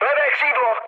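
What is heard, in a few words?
left wing, Czech, mood low; speech; War Thunder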